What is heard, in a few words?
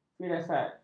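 "firasat", Malay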